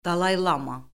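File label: "dalay-lama", Azerbaijani; Orfoqrafiya Lüğəti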